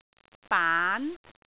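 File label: "baan2", Cantonese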